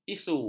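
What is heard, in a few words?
"isu", Malay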